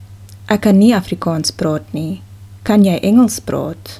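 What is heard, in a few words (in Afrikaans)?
"ek kan nie Afrikaans", Afrikaans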